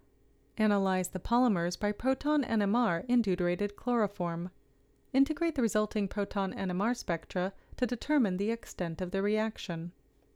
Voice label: Voiceover